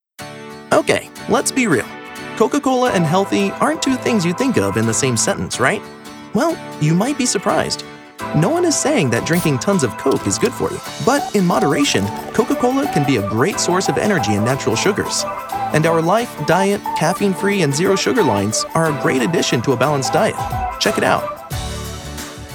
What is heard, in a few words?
Explainer; Coca-Cola